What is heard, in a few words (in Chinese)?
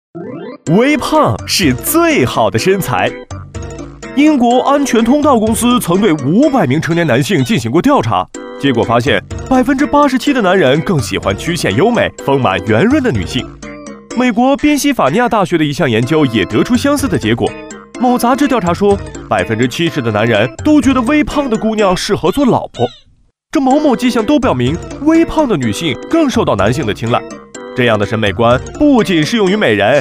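男国大音; 多肉君-病毒类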